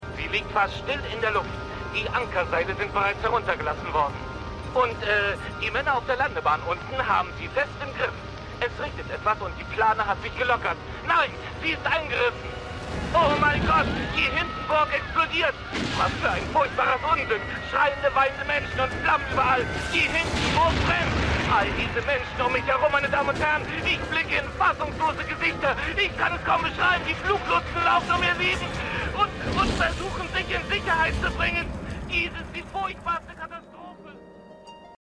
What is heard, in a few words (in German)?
Reporter; X Factor